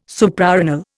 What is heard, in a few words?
"Suprarenal", Indian